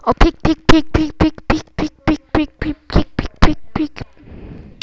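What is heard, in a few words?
"pick", spoken word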